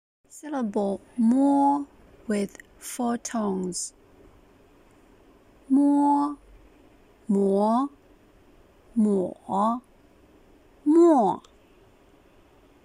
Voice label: mo with 4 tones, Mandarin

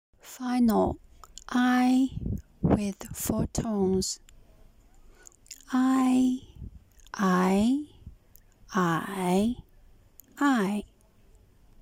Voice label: ai with 4 tones, Mandarin

